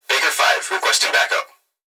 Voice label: Human Young Male Voice Police Radio; Emergency

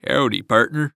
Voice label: Human Cowboy Say "Howdy Partner" Voice

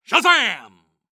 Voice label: Cartoon Loud Voice "Shazaam"; AdobeCC

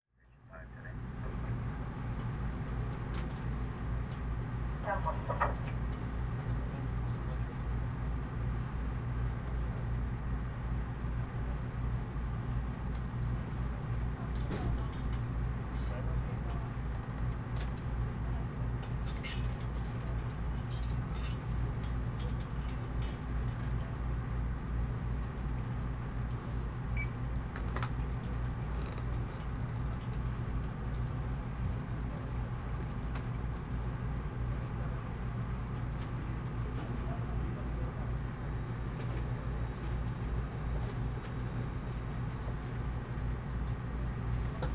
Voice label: Ambience Police Dispatch; AdobeCC

